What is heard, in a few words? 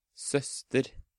"Søster", Norwegian